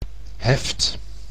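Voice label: "heft", Dutch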